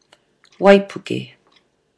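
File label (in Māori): "waipuke", Māori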